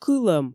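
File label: "кылӧм", Komi, female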